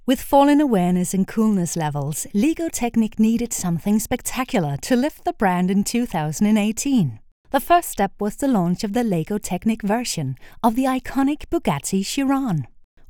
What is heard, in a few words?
English; LEGO Technic Awards